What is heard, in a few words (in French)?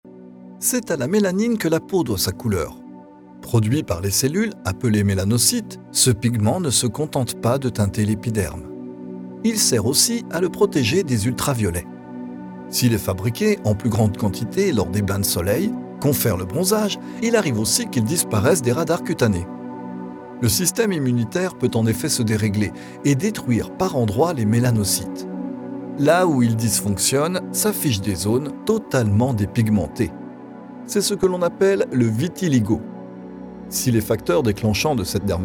Podcast Sante Documentaire; Démo Institutionnel; Médical Le Vitiligo